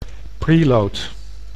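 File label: "preload", Dutch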